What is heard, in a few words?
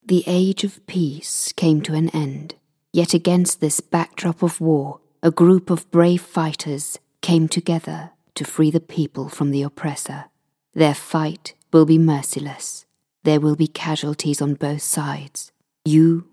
Video Game Trailer